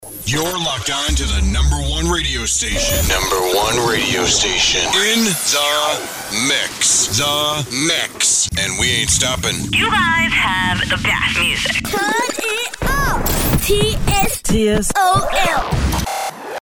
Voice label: Radio Station In The Mix; ZFM No 1